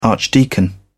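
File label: "archdeacon", British English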